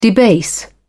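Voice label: "debase", British English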